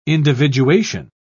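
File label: "individuation", British English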